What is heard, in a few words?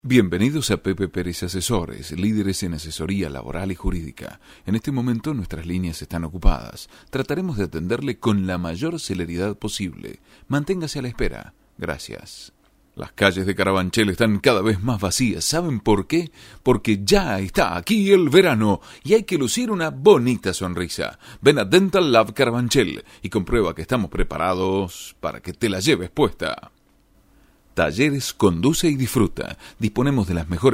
locutor argentina argentinian voiceover